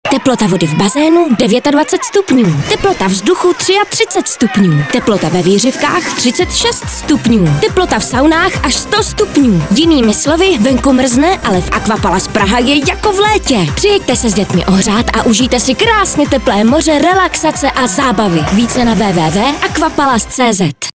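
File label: Czech, female, commercial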